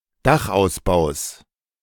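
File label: "Dachausbaus", German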